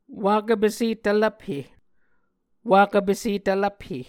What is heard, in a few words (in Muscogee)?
"wakv pese tvlvphe", Muscogee